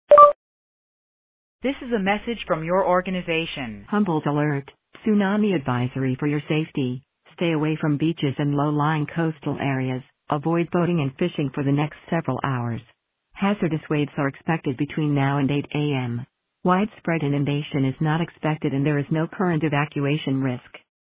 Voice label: Voicemail